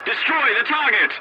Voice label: voice message attack target, english; War Thunder

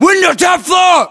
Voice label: location top floor window, US; localized english; battlechatter

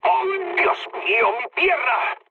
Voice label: damaged pilot, mood med, speech; War Thunder

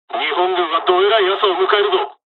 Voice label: dialog, dialogs japanese; War Thunder

